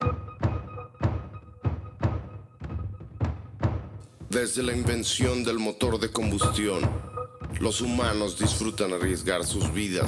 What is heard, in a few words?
Intro Vox Spanish; quantum runners windows gamerip 2021